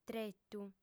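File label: "tretu", Sardinian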